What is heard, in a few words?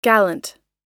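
"gallant", American English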